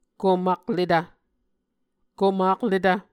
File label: "komakletv", Muscogee